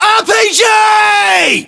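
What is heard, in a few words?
threat rpg, British; localized english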